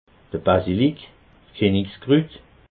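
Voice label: "basilik", Alsatian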